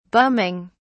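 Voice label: "bumming", British English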